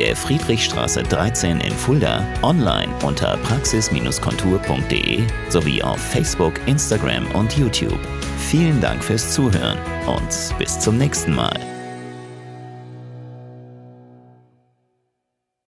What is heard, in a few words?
Podcast Intro Outro; Praxis Contour